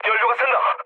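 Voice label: Korean tank damaged mood low, speech; War Thunder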